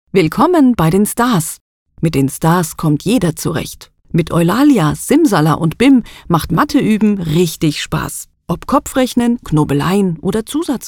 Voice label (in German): hoerproben erklaerfilm